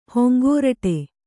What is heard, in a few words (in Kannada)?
"ಹೊಂಗೋರಟೆ", Kannada